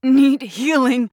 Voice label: Healing; Realm Royale; Mage; Kunoichi